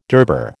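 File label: "durbar", American English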